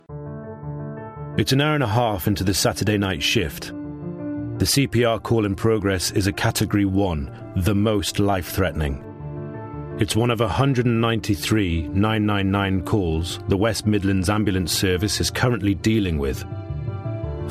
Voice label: Narration